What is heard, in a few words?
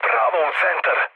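Serbian bomb success mood low, speech; War Thunder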